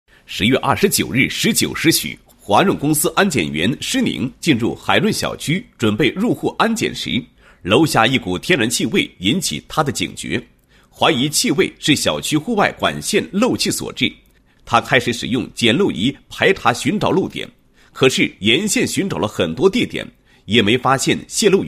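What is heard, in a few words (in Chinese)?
男 人物 安检员个人事迹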